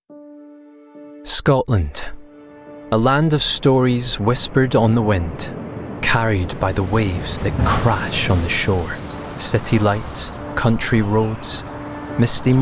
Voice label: commercials, 30s